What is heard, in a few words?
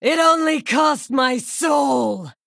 Female Blood Elf